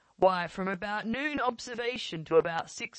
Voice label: Pulse Robust Resynthesis; VowelBender; Source Formant examples

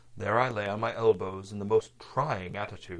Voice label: LPC Burg Resynthesis

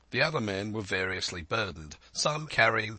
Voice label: LPC SL Resynthesis; VowelBender; Source Formant examples

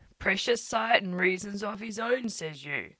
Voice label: LPC Burg Resynthesis

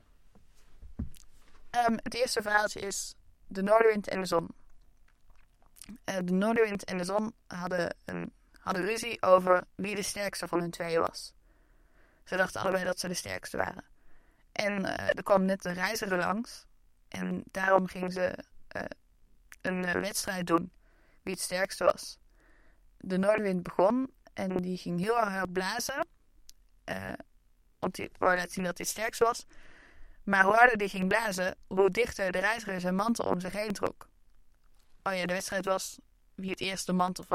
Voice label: Pulse Burg Resynthesis, North Wind and the Sun; VowelBender

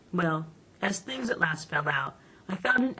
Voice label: LPC Burg Resynthesis

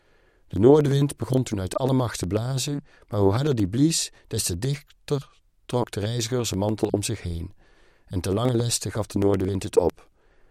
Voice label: DePulse Burg Resynthesis; VowelBender; Labeled Speech

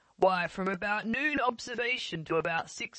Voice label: Pulse SL Resynthesis; VowelBender; Source Formant examples

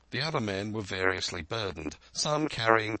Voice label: Pulse Burg Resynthesis; VowelBender; Source Formant examples

